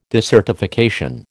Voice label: "desertification", American